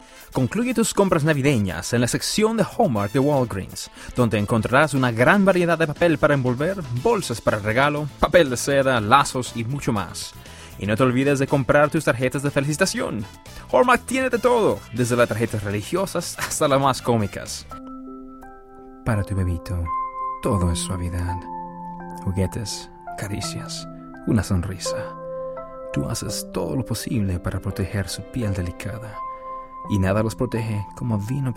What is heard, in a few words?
spanish voice over